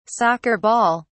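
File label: "soccer ball", British English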